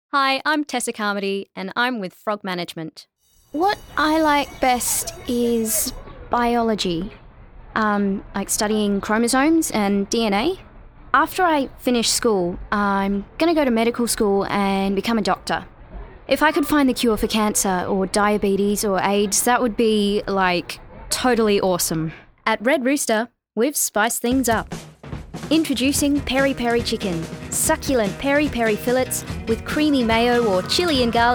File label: Voice Demo; Actor, Voiceover; Location UK